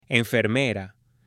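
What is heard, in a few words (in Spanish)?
"enfermera", Spanish, female; AppLenguaje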